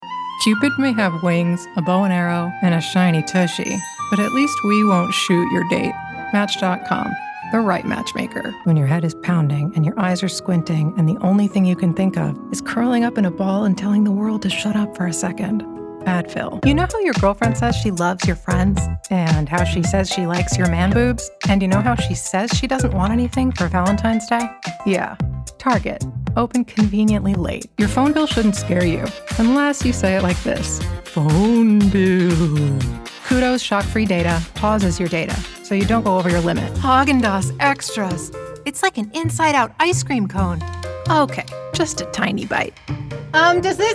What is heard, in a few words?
Commercial